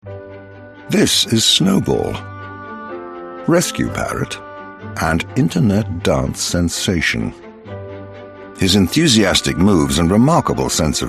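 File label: Documentary; 20s-30s. Deep tone. An assured, striking voice with a crisp finish. Home Studio.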